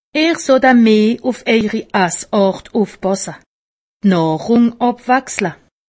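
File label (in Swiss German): "ufpasse", Alsatian; medecine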